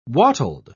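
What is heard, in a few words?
"wattled", British English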